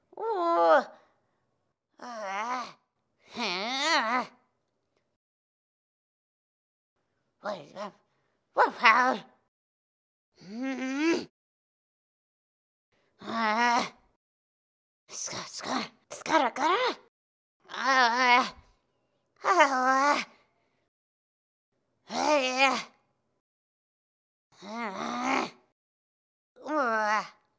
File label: merchant annoyed loop; Hollow Knight: Silksong